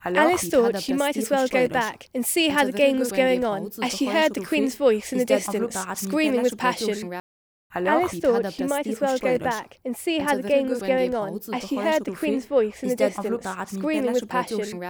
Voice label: tv ffp3 preformed plus 8 db snr